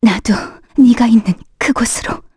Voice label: Dead, kr; Valance; kingsraid; voices heroes